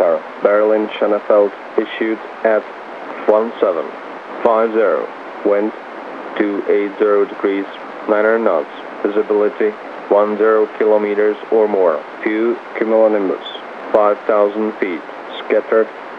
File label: Praha VOLMET 128,60MHz